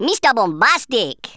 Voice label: "excellent", Rasta